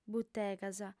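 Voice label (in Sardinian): "butegas", female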